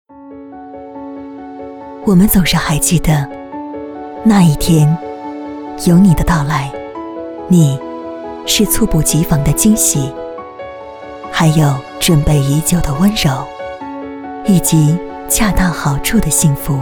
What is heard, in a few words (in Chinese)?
女 品牌广告; 卡萨帝舒适家装节520心动日; 为爱成双